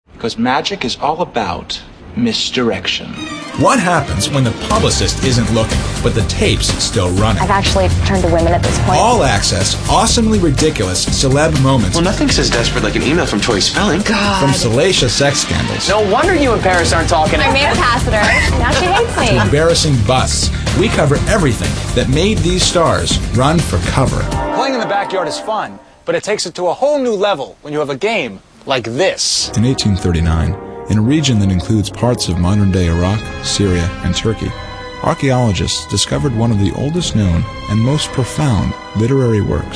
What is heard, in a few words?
male narration